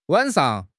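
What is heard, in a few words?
"wan3 shang5", Mandarin Chinese; HSK2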